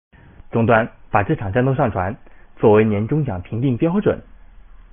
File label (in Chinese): 胜利, BattleCry